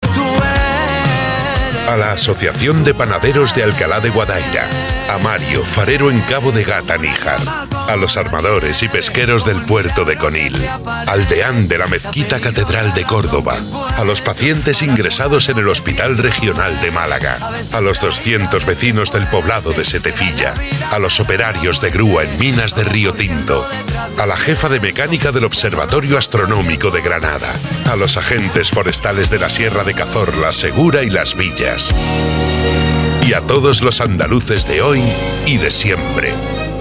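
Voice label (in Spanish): otros España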